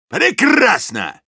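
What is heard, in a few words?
Soldier good job, Russian; "Прекрасно!"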